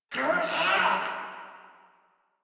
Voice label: Struggle Crewmen; Warframe; Corpus Crewmen; Crewman D